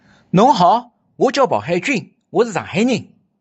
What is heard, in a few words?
Shanghainese, dialects